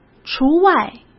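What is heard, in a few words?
"除外", Mandarin Chinese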